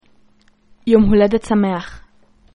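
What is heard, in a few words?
happy birthday hebrew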